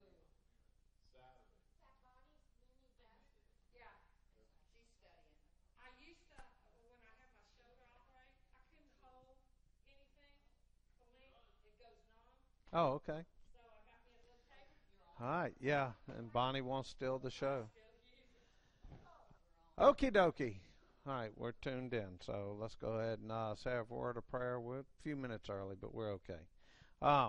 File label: English